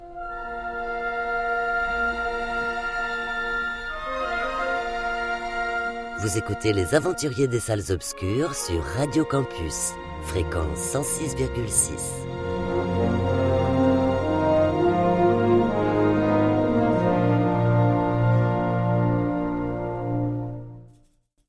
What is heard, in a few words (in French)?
Radio; Radio Campus; Aventuriers